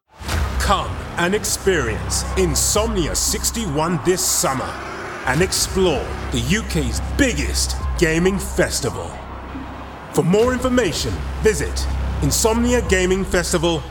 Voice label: male english gaming festival promo; insomnia